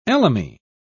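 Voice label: "elemi", British English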